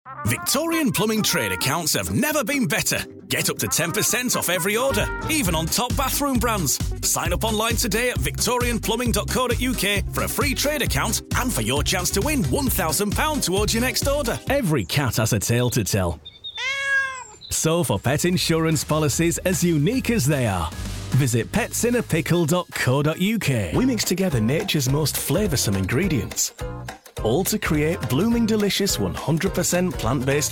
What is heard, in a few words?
Northern; Northern Demo